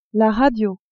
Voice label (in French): "radio", French; cuisine